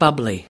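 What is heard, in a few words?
"bubbly", US English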